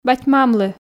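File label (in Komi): "бать-мамлы", Komi, female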